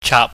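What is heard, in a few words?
"chaap", Cantonese, mid tone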